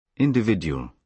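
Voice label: "individual", British English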